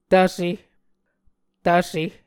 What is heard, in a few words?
"tvse", Muscogee